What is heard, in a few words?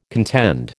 "contend", American